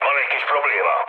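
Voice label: Hungarian aircraft damaged mood low, speech; War Thunder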